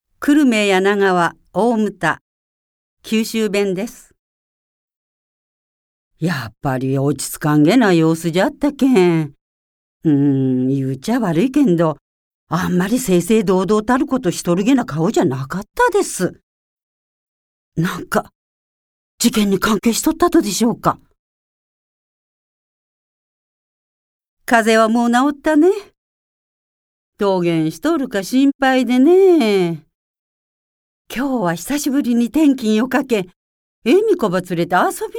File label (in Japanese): 方言